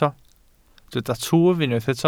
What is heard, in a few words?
"twf", Welsh